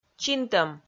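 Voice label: "чинтӧм", Komi, female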